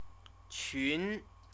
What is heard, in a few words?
"qun", Chinese